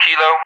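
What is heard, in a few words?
"Kilo" English, speech; Alphabet; DCS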